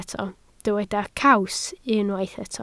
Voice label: "caws", Welsh

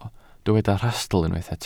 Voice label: "rhastl" Welsh

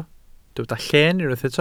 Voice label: "lleen" Welsh

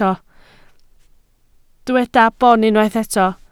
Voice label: "boon", Welsh

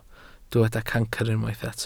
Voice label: "cancr", Welsh

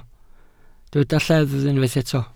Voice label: "lleddf", Welsh

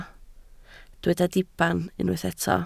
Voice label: "diben" Welsh

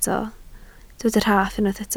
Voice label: "rhaff" Welsh

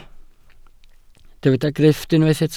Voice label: "grifft", Welsh

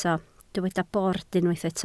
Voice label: "bord", Welsh

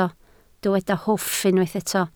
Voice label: "hwff", Welsh

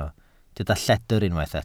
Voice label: "lledr", Welsh

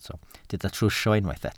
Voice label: "trwsio", Welsh

